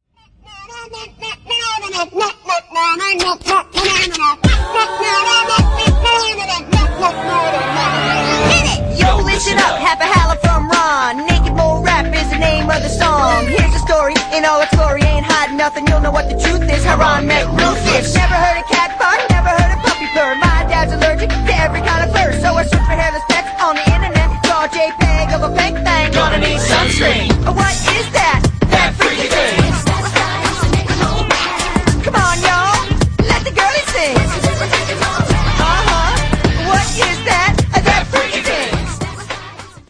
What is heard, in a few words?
rap